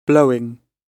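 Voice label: "blowing", British English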